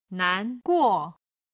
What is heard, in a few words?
"nan2guo4", chinese